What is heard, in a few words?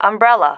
"umbrella", female